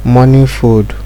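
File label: "morningfood", Nigerian Pidgin, male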